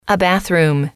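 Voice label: "a Bathroom", female, english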